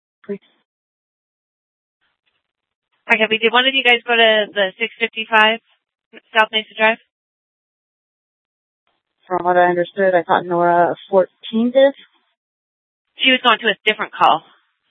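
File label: police dispatch, scanner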